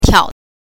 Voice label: "tiao", pinyin